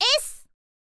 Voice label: "s", girl; voice; info girl

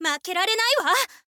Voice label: swordwoman start, voice

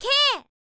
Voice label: "k", info girl voice

